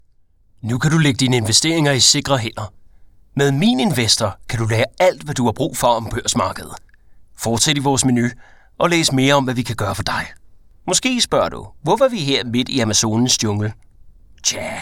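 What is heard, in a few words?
Danish General; GoLocalise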